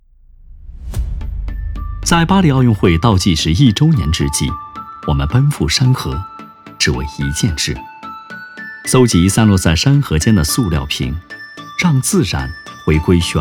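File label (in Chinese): 广告（轻快、愉悦、积极）; 安踏奥运山河计划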